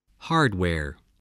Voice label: "hardware", English, spelling